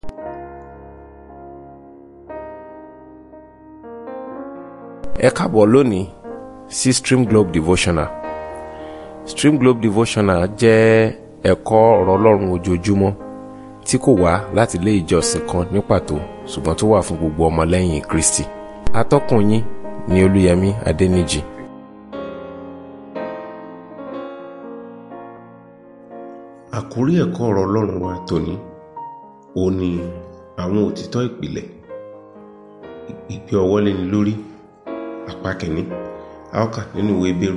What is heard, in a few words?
Devotional Audio Yoruba